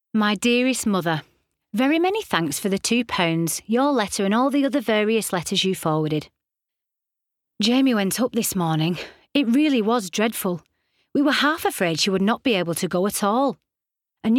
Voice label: Narrative Compilation